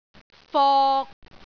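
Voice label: "fok3", Cantonese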